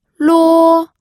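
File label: "luo", first tone, pinyin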